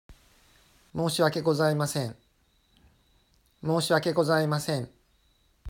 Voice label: Moushiwake Gozaimasen Pronunciation, Japanese